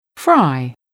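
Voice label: "fry", English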